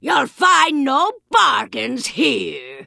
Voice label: Vrykul Female Magic User Vendor